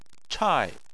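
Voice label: "chai" fourth tone, Chinese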